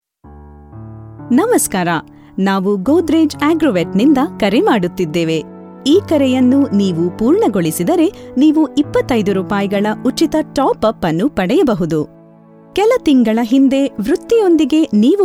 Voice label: IVR Kannada